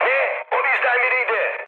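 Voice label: Turkish player killed ally, mood high, speech; War Thunder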